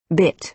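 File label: "bit", American English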